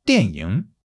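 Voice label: "dian4 ying3", Chinese; 基础汉语40课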